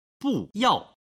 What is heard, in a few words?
"bu yao", Chinese